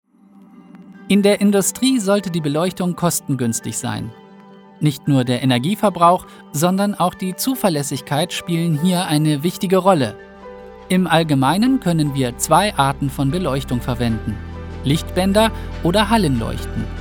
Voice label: german commercial